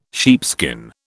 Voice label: "sheepskin", British

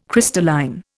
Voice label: "crystalline", Indian

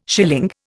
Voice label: "schilling", Indian

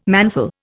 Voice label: "manful", Indian

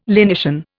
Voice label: "lenition", Indian

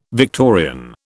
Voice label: "Victorian", British

